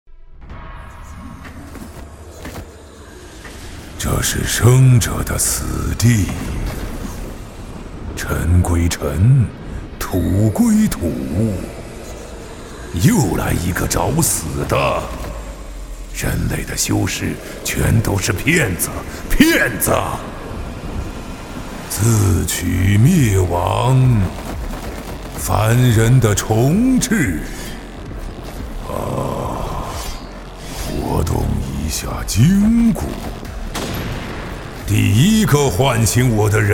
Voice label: 男 角色; 魔兽世界

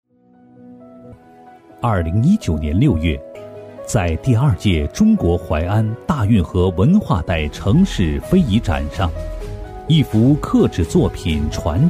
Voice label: 男 人物专题; 非遗传承人; 男60-角色方言

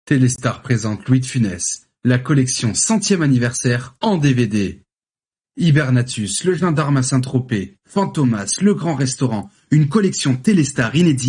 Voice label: 法语男声

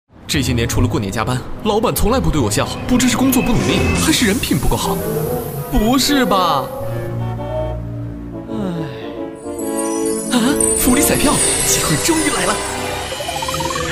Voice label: 男 公益广告 年轻搞怪; 中国福利彩票; 年轻活力; 年轻时尚

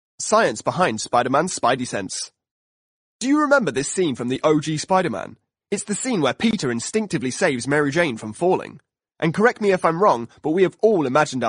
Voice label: 英式男声

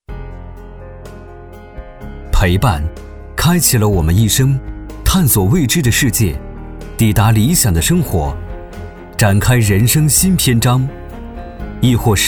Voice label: 男 企业宣传 积极向上; 浑厚有力; 轻松活泼

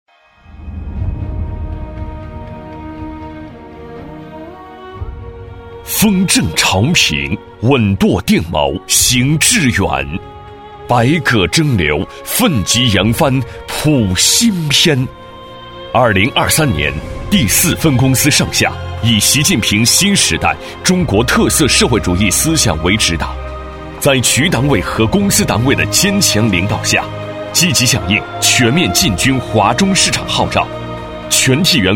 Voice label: 男 大气恢弘; 年轻激情; 大气浑厚

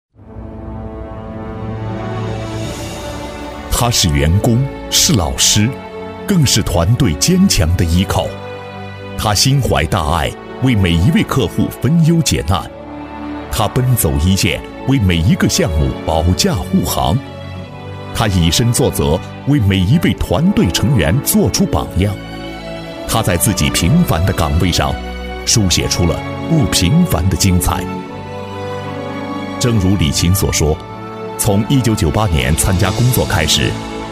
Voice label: 男 感动人物 温情走心; 大气激情 大气浑厚; 感动人物《中国体》

